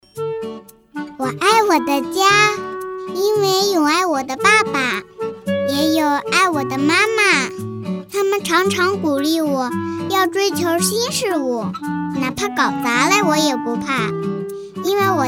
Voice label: 女, 真女童; 我爱我的家

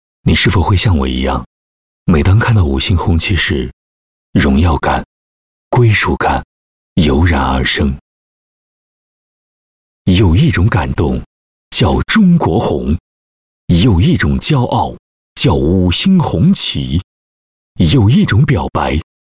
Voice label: 男, 国庆献礼, 超燃; 一抹红

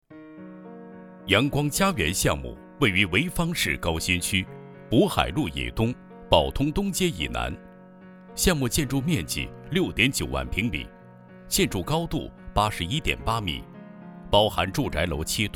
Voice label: 男 企业专题; 阳光嘉园项目

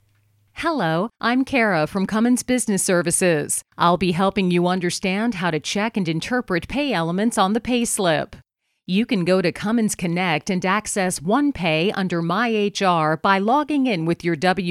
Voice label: 外籍女 用户指南英语

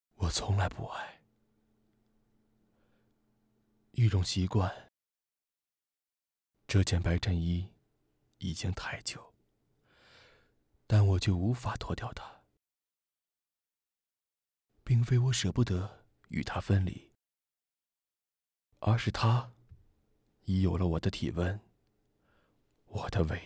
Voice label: 男 旁白

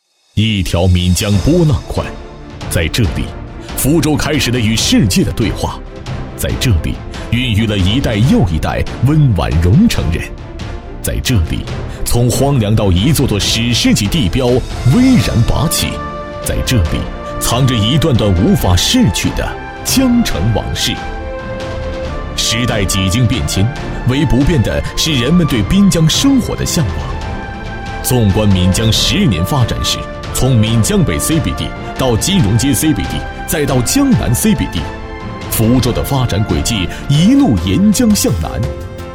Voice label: 男 专题 政府 宣传片; 温州宣传片

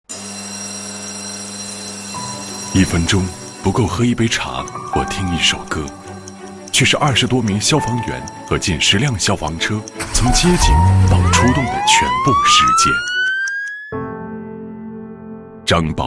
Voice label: 男 宣传片; 延安消防最美奋斗者

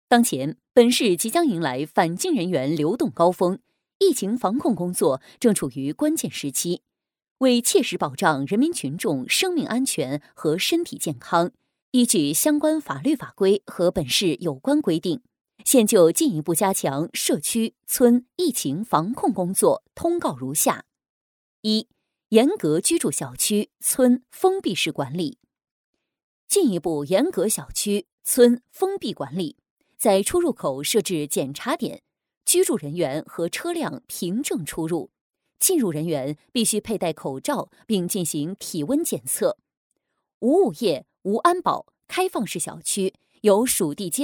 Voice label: 女 新闻 庄重; 疫情通告

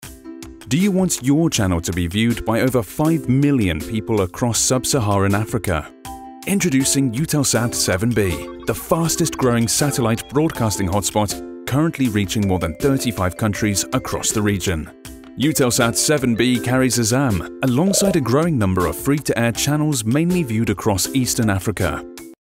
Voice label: 外籍英男 公司宣传 轻快自信

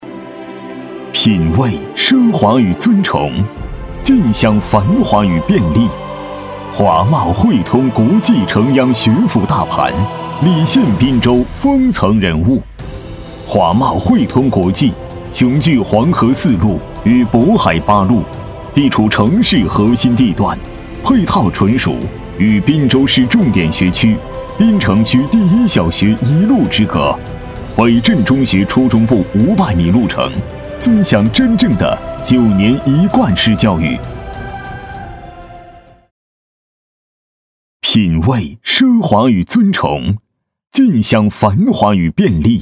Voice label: 男 电台地产 大气温情; 华贸汇通

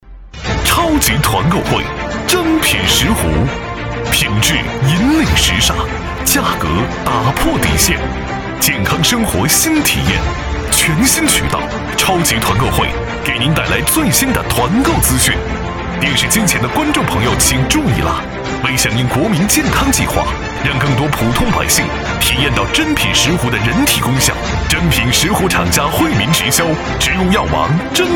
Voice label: 男 电视购物 珍品石斛

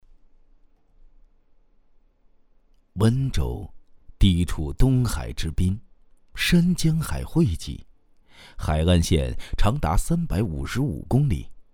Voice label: 男 航拍中国风格模仿配音; 筑千里海塘; 守一城安澜

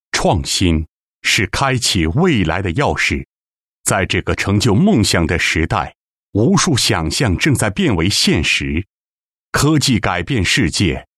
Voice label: 男-专题

